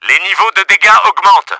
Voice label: HEALTH LOW, french; Battle Engine Aquila

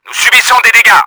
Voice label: UNDER ATTACK, french; Battle Engine Aquila

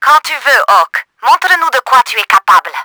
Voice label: RACING START, french; Battle Engine Aquila

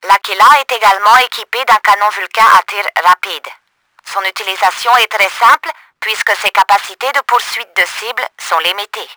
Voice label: TUTORIAL VULCAN CANNON, french; Battle Engine Aquila

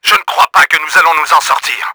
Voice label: HEALTH CRITICAL, french; Battle Engine Aquila

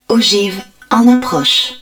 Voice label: Incoming Warhead, french; Battle Engine Aquila

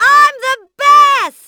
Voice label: victoire fem

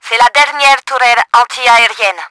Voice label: S FLAK, french; Battle Engine Aquila; XBOX

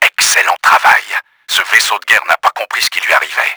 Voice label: BATTLESHIP DESTROYED, french; Battle Engine Aquila; XBOX

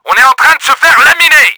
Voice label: HEALTH LOW, french; Battle Engine Aquila

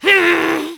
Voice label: agression fem

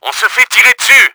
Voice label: UNDER ATTACK, french; Battle Engine Aquila

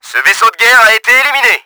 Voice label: BATTLESHIP DESTROYED, french; Battle Engine Aquila

